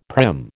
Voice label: "prim", American